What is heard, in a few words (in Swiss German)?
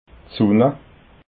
"sunna", alsace